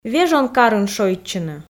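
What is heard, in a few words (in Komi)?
"вежон карын шойччыны", Komi, female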